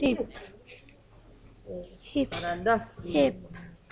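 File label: "hip", spoken word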